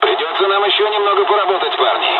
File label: dialog, dialogs russian; War Thunder